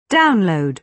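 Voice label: "download", British English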